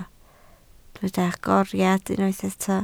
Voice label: "agoriad", Welsh